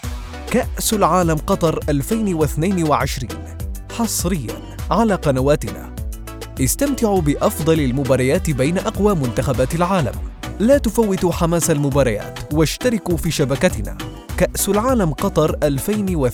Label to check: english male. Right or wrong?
Wrong